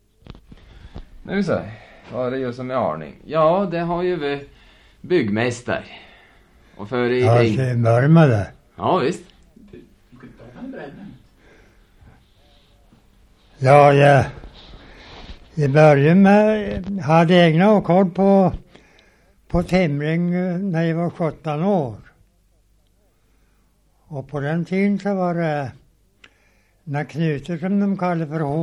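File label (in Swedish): Sundsjö Jämtland, Svenska sagor, dialekter; Folkminnen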